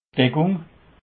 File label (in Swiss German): "deckung", alsace; lexique foot